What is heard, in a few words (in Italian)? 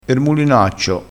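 "mulinaccio", dialettale crespinese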